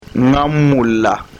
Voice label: soninke